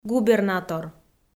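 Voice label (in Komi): "губернатор", Komi, female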